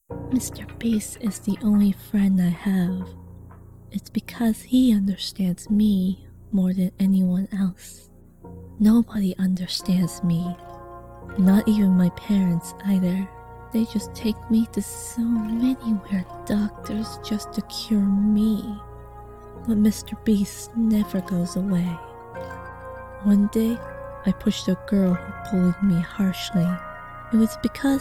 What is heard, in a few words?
Blood, Killing, Bullying, Horror Atmosphere, Improv